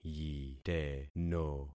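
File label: "yiteno", concatenated syllables; Affixing experiment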